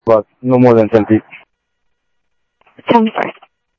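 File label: Sheriff West County, scanner audio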